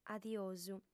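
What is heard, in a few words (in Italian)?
"adiosu", sarda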